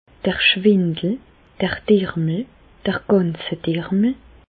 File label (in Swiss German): schwindel, Bas Rhin; medecine; vertiges